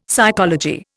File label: "psychology", Indian; Dictionary